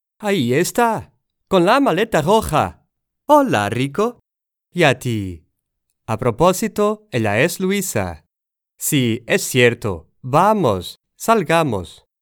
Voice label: Spanish App

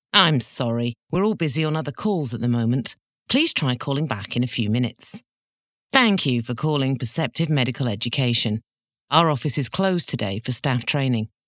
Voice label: phone system

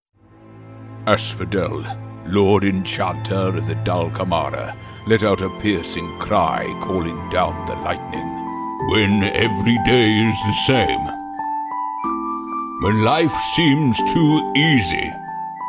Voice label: CHARACTERS - MALE - EPIC OLD GUYS

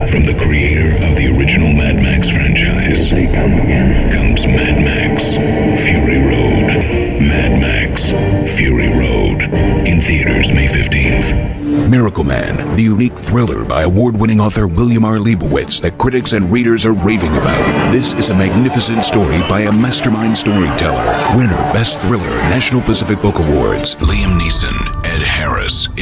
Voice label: Trailer

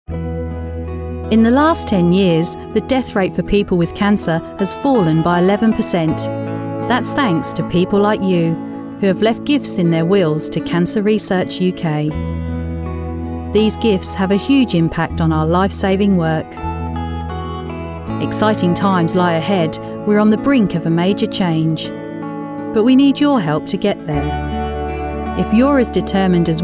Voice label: Ad

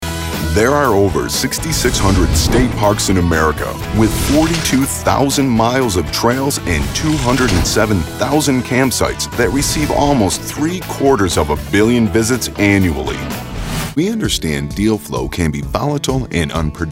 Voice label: Narration

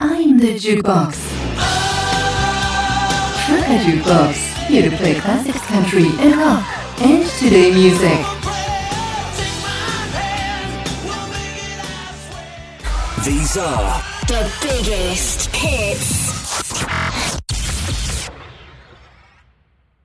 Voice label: Radio

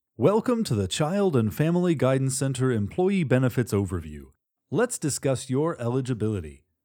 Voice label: Corp Training video friendly

